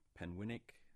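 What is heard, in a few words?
"Penwinnick", English; place-names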